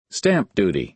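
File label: "stamp duty", American English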